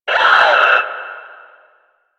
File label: Enemy Pain; Warframe; Lasrian; Lasrian Enemy C